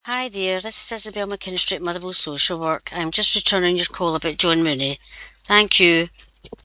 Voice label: call recording